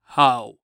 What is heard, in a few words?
"How", Human Indian Voice